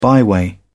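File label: "byway", British English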